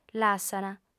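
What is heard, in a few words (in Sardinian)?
"lassant", Sardinian, female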